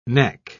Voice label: "neck", pronounce